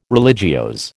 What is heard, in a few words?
"religiose", American